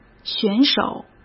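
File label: "选手", Mandarin Chinese; hsk; chinese flashcards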